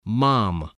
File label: "mom", voice, spelling